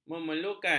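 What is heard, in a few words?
"memerlukan", Malay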